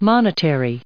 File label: "monetary", us